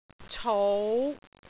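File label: "cou5", Cantonese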